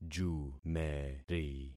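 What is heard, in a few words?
"jumeri", concatenated syllables; Affixing experiment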